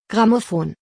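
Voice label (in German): "Grammophon", German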